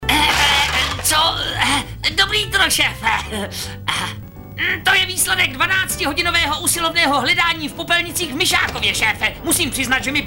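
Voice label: male, Czech, drama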